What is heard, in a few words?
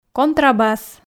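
"контрабас", Komi, female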